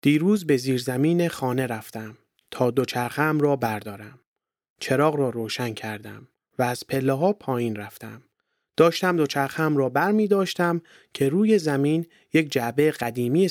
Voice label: text farsi